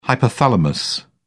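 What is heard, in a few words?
"hypothalamus", British English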